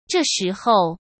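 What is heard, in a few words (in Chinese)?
"这时候", Mandarin Chinese; hsk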